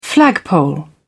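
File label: "flagpole", British English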